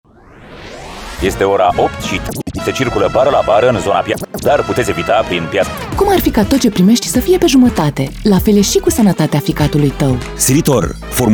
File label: spot radio; Silithor